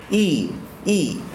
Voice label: yi male